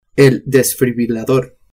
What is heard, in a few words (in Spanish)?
"desfibrillador" spanish